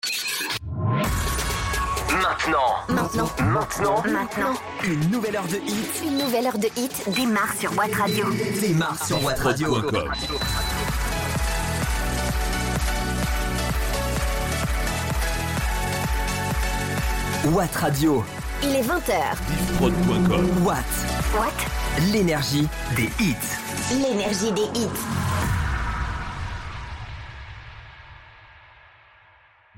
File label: Radio Top H; Watt